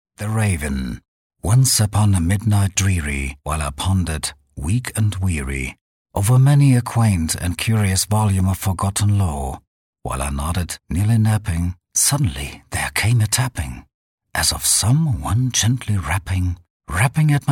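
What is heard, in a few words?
German, male, English Poetry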